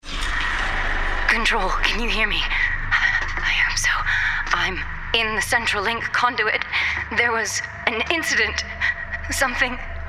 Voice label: gaming us female drama fantasy transatlantic rp action texan; new york; cockney